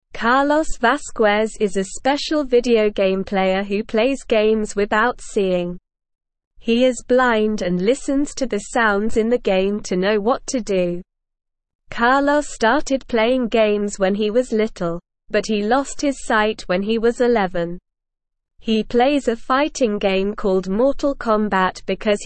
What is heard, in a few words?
English SLOW Reading; Carlos the Blind Gamer Helps Make Games Accessible; English Newsroom